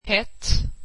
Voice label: swedish hot